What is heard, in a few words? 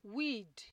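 "weed", Nigerian Pidgin, female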